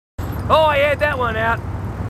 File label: marines, aussie; h2dialogue